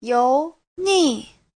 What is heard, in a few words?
"you2ni4", Chinese; Giáo trình Hán ngữ